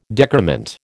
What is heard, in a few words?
"decrement", American